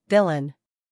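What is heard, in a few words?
"scandal", American; villain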